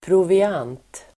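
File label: "proviant", Swedish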